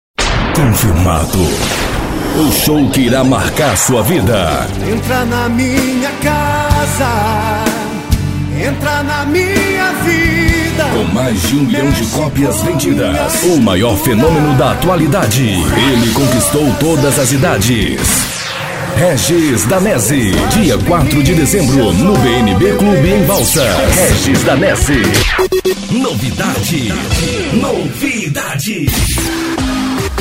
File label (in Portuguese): locutor, vozes masculina